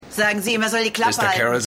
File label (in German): Schwester, Stimmproben; Schwester Esposito